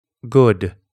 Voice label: "good", English

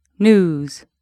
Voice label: "news", English

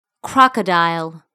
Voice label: "crocodile", English

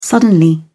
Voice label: "suddenly", English

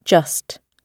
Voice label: "just", English

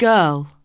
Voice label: "girl", English

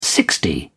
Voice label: "sixty", English

